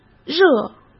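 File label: "热", Mandarin Chinese; chinese flashcards; hsk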